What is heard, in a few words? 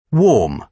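"warm", British English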